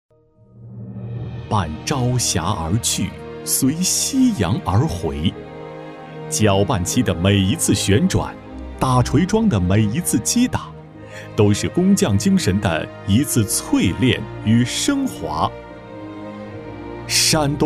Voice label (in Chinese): 男 宣传片 大气; 山东路桥